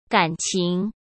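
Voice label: "敢情", Mandarin Chinese; hsk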